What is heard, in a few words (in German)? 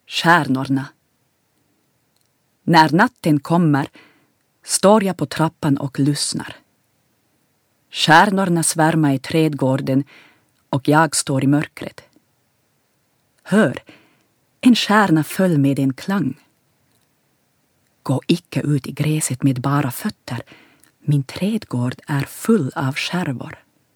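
Swedish, Schauspiel Poetisch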